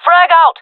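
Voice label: throwing grenade, female voice; player vo; Counter Strike Model Pack; CS:GO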